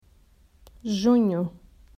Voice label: "Jun", Portuguese